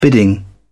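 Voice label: "bidding", English, British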